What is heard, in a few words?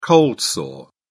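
"cold sore", British English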